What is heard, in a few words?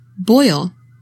"BOIL", English; 3000 words